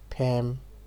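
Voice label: "pem", Nigerian Pidgin, male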